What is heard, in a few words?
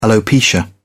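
"alopecia", British English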